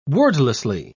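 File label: "wordlessly", British English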